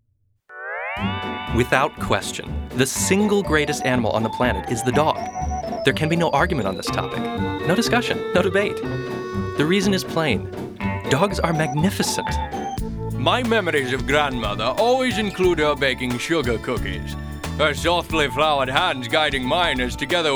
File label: commercial